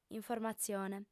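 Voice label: "informatzione", female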